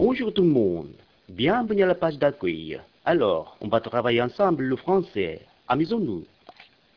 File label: french